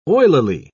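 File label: "oilily", British English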